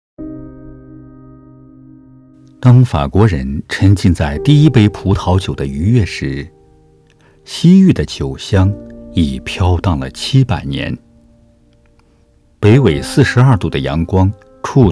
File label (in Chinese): 产品广告